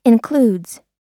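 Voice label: "includes", American English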